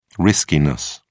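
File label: "riskiness", British English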